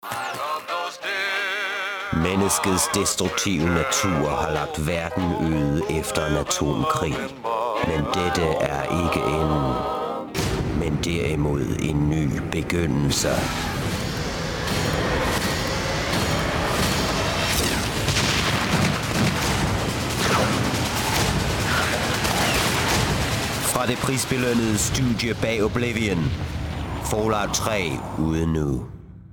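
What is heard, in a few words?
Danish Commercial; voice demos; GoLocalise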